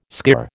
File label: "skirr", American